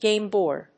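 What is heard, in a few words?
"gameboard", English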